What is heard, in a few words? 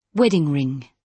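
"wedding ring", British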